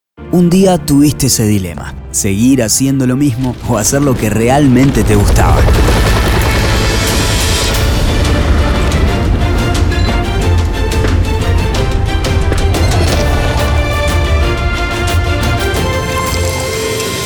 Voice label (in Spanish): spot; Dilema Day